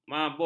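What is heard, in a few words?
"mabung", Malay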